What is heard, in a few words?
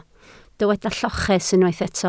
"lloches", Welsh